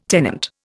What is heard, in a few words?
"tenant", Indian